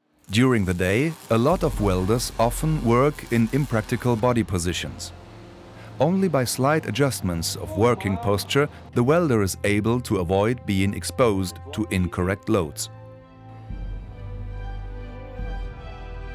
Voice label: info schweissertraining englisch

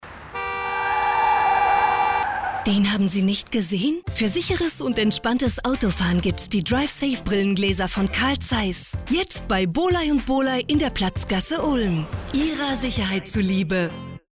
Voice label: Radiospot

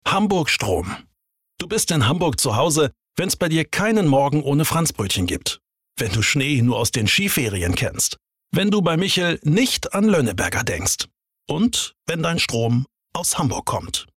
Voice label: Werbung Strom; Hamburg

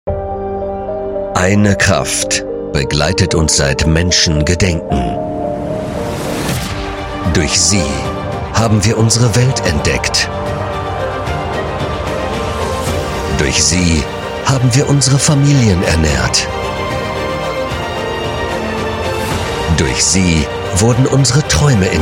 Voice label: Werbung kernig

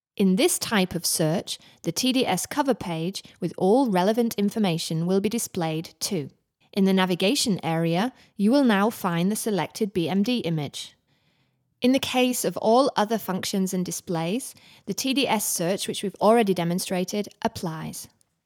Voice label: uk englisch

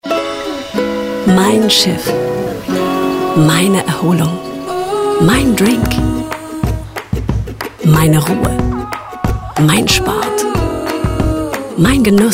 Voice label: tv werbung; tui cruises

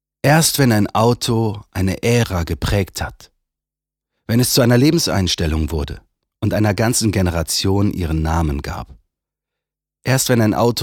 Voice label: autospot; LOFT VOICES

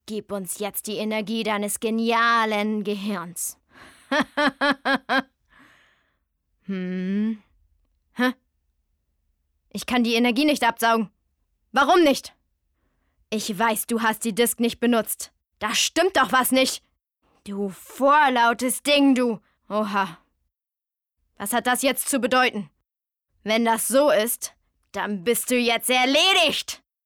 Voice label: Schauspiel Anime boese

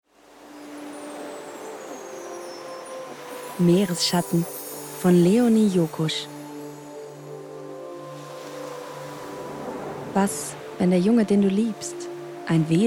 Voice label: hoerbuch trailer; meeresschatten

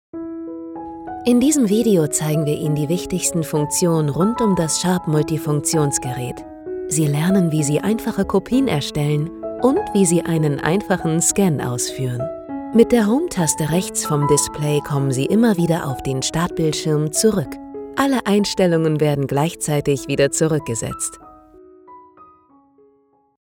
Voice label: Erklärvideo; Sharp

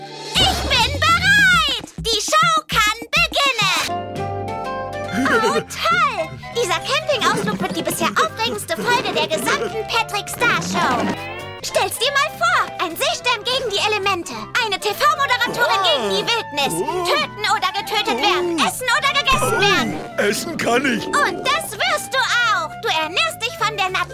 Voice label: Trickfilm aufgedreht

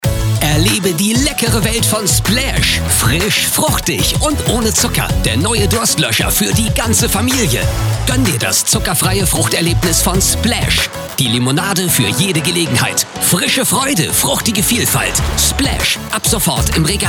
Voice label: AD FUNKSPOT; voice; SPLASH

